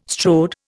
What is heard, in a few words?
"strode", Indian